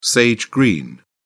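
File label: "sage-green", British English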